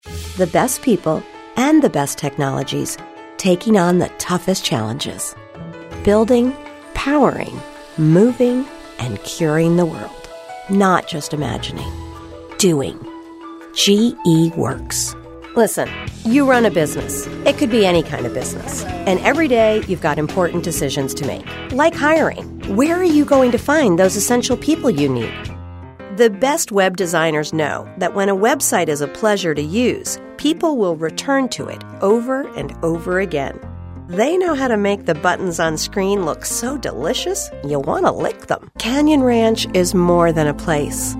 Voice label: Narration